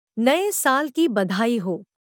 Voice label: Hindi Happy New Year; Greetings

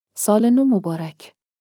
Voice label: Farsi Happy New Year; Greetings

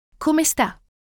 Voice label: Italian How are you singular; Greetings

